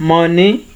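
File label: "money", Nigerian Pidgin, male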